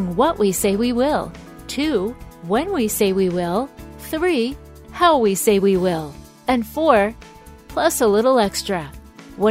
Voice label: Bank On Hold Message; Community First Bank